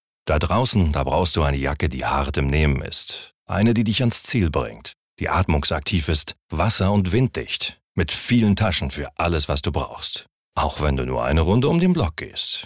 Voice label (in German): Werbung, Werbung (cool), Commercial, German; LOFT VOICES